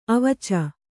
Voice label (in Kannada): "ಅವೀಚಿ", kannada